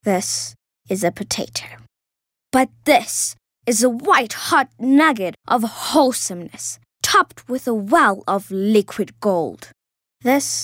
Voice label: English Dramatic